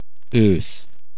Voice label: fall, hungarian